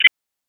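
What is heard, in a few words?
Police Northwest District Tactical 1, p25; wake p25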